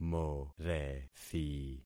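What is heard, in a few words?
"morethi", concatenated syllables; Affixing experiment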